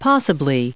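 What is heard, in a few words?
"possibly", us English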